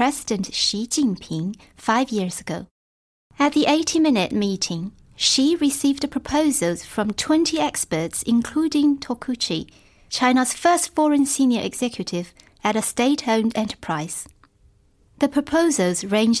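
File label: Chinese, English accent; Golocalise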